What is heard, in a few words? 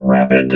"rapid", robot